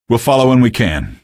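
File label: citizen evac no, male; vo; npc; source engine; episodic; episode 1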